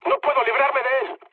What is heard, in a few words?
aircraft damaged, mood med, speech; War Thunder